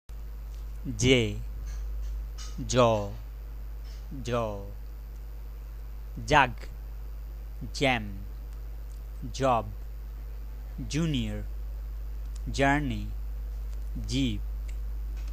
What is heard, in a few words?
pronunciation with j; nishachor